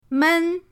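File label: "men1", Mandarin Chinese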